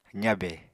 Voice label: "zabe", Apatani

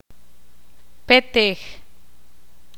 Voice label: "sapo", Ixil

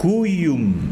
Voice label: "arena", Tsesungun

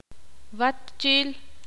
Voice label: ixil; dormir

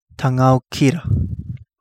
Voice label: Kapingamarangi; one spot snapper

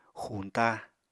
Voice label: "xuñta", Apatani

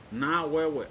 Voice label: "outer space", Pingelapese

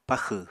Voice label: "paxw", Apatani

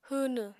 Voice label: "hwwnw", Apatani

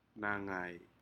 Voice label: "nagai", Aneityum; shellfish

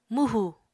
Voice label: "mwhù", Apatani

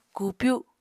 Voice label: "kupyu", apatani

